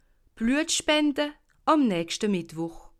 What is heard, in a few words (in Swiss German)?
"bluetspende", alsace